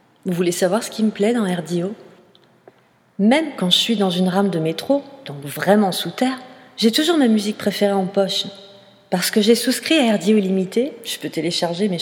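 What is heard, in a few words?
French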